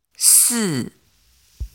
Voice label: chinese pronunciation zi ci si